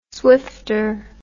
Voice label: "swifter", English word

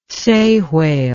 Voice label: "sei whale", English

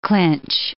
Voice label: "clinch", English

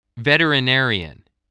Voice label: "veterinarian", English

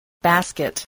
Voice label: "basket", English

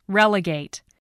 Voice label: "relegate", spelling voice

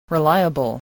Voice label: "reliable", spelling voice

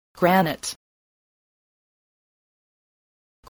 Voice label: "granite", spelling

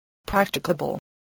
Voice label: "practicable", voice, spelling

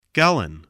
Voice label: "gallon", voice, English

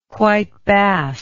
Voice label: "white bass", English

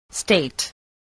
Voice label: "state", voice

